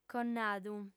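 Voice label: "connadu", Sardinian, female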